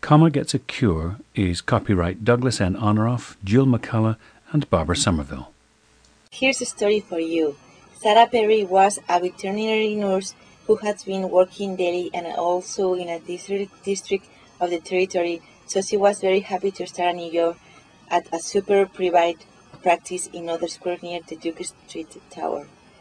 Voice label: spain